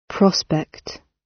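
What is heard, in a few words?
"prospect" British; Business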